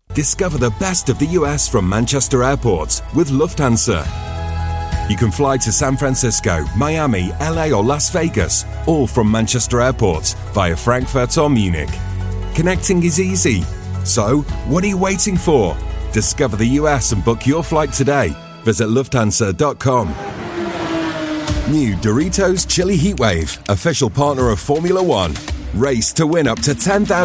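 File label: commercial, Gravitas Warm Trustworthy Voice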